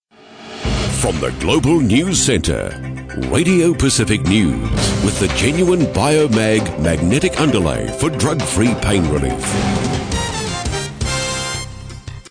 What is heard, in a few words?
radio pacific news intro, Voice Over New Zealand